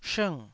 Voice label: "shng4", taiwanese Syllables